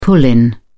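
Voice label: "pull-in", British English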